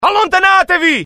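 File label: fear grenade, IT; mohaa; dialogue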